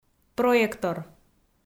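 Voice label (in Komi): "проектор" Komi, female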